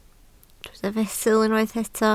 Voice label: "fesul", Welsh